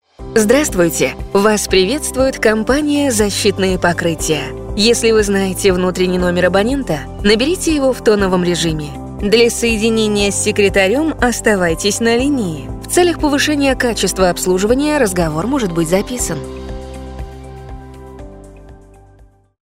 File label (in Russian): Озвучивание приветствия компании; ДИКТОРЫ ДЛЯ IVR